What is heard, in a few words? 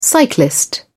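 "cyclist", British English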